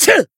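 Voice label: Voice attack, Japanese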